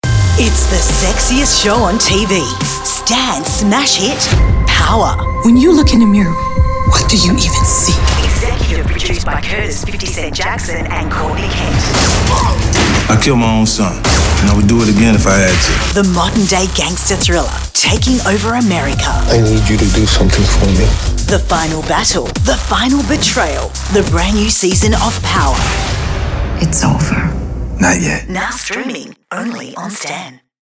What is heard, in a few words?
Promo